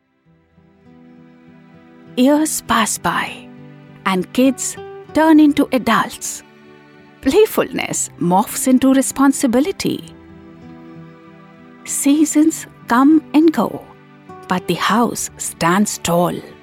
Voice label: Advertisements English